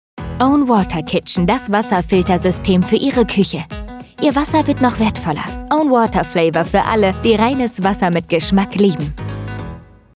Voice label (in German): Funkspot; Own Water